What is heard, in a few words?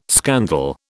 "scandal", British